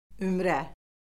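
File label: "ümrə", Azerbaijani; Orfoqrafiya Lüğəti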